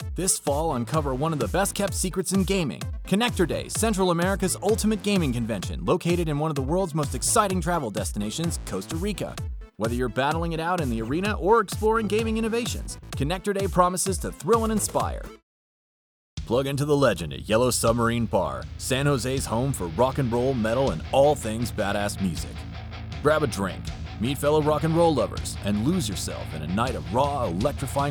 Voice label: Online or Radio Ad Spot, Versatile American Male Voice